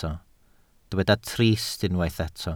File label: "trist", Welsh